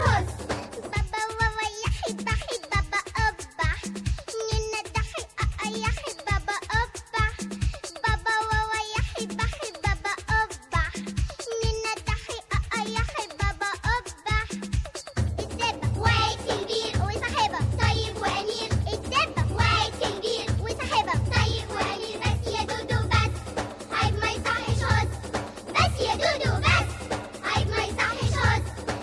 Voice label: funny arabic